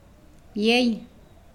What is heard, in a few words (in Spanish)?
Nawat palabras tres; pipil